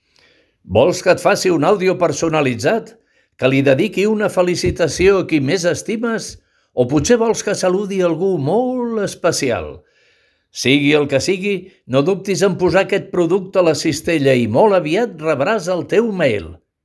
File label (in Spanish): audio narrador